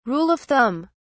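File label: "Rule of thumb", British English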